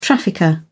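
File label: "trafficker", British English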